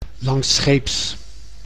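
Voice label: "langsscheeps", Dutch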